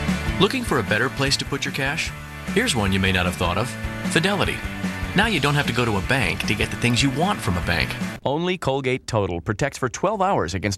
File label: Commercial VO